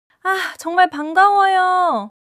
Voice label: excitement